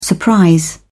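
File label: "surprise", British English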